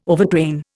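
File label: "overtrain", Indian English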